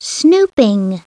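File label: "snooping", English; SoftKey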